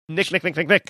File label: quickly saying nick nick nick nick nick